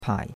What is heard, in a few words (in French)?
"pai3", chinois; Cours de Chinois